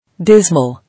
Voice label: "Dismal" English